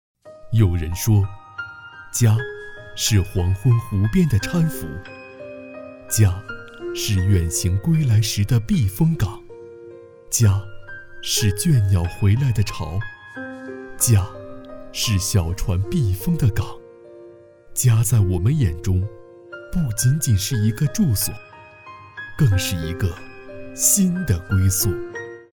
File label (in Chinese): 抒情宣传片; 小西帐村改造